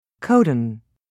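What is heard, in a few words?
"codon", English, British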